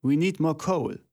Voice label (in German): german voice; wyrmsun